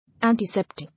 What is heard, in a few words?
"Antiseptic", English